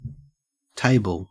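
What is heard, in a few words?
"table", Australian English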